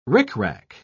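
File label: "ricrac", British English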